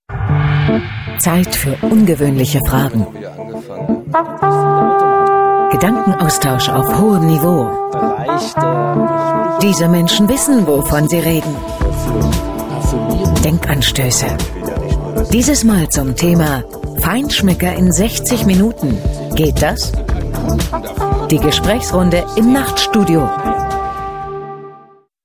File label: Trailer; hop